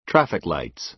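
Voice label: "traffic lights", American English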